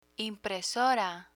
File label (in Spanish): "impresora", Spanish